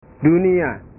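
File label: "dunia", Indonesian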